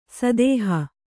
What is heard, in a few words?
"ಸದೇಹ", Kannada